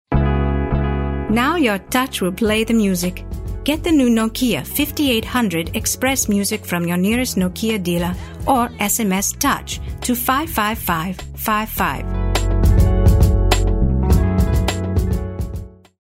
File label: english, female voice